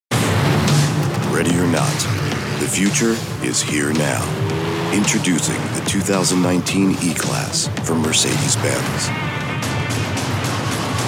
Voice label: Commercial